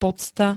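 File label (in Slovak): "pocta", Slovak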